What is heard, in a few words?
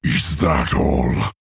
Male Orc Threaten; Thrall